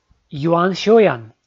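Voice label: "юан-сёян", Komi, male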